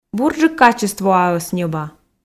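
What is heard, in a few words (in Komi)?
бурджык качествоаӧс ньӧба, Komi, female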